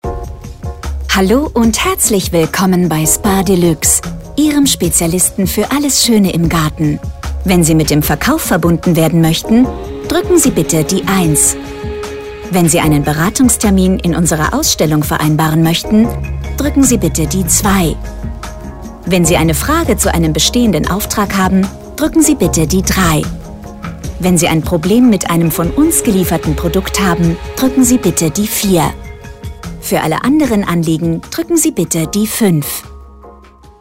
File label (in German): IVR, Telefonansagen; SPA Delux